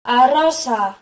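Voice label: pink, Serbian; gcompris-data; colors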